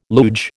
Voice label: "luge", American